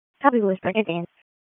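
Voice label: Police Northeast District Dispatch; Raleigh; wake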